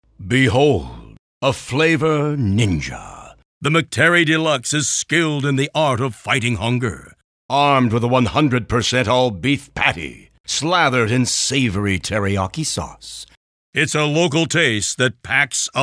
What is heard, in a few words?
Radio; Flavor Ninja